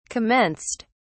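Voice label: "commenced", English, British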